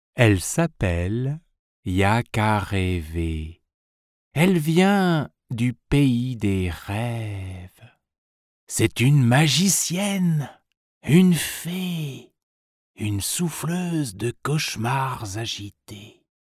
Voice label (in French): voix off conte